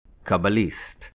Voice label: "Kabbalist", Hebrew